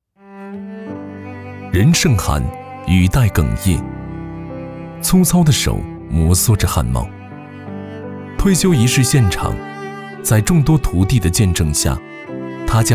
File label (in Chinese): 男 纪录片 温情讲述人物类型